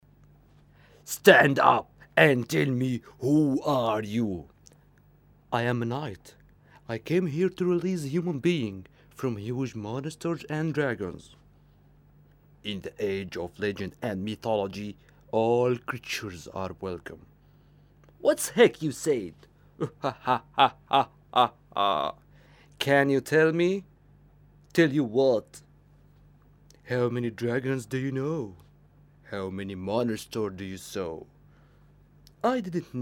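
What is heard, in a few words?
english dialogue two character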